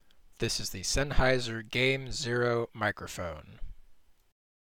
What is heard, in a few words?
Microphone Test Samples; Sennheiser Game Zero; XPG Precog Gaming Headset Review